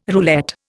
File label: "roulette", Indian